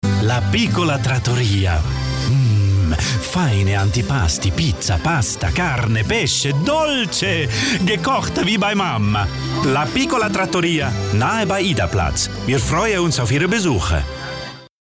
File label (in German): Hoerspiel Hochdeutsch CH; flippig frech verspielt